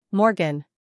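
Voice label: "scandal", American; morgan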